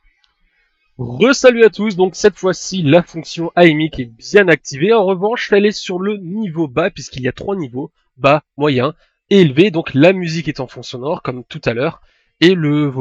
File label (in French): test asus ai mic bas